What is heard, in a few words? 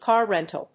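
"car rental", English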